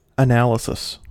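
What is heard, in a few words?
"analysis", US English; pronunciations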